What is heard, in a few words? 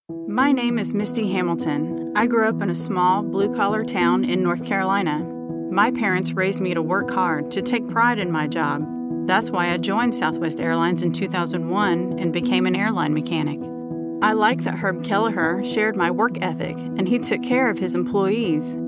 Radio Spot music; Picket Radio AD